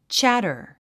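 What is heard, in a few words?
"chatter", 英単語 発音; tʃǽtə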